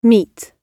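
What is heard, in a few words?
"meet", British English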